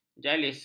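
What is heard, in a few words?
"jalis", Malay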